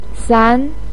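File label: "san", Mandarin Chinese, second tone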